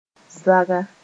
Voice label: "zaga", moore

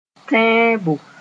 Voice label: "tẽebo", Moore

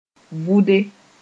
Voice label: "vʋde", moore; to sting

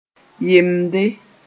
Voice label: "yemde", moore

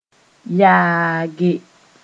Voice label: "yaage", moore

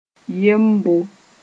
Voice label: "yembo", moore

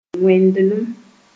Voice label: "wẽndlem", Moore

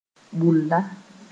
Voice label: "bula", moore

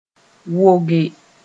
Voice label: "woge", moore

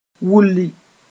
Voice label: "wulli", moore; hot

